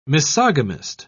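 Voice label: "misogamist", British English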